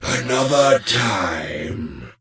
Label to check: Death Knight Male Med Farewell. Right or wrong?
Right